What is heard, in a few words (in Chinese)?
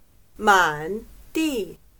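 "满地", Chinese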